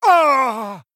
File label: Male Blood Elf Death